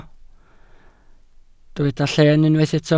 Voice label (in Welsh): "lleen" Welsh